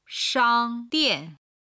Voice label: "shang1dian4", Chinese